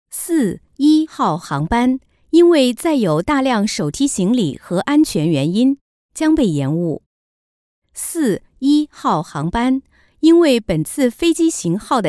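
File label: Chinese, Announcement; GoLocalise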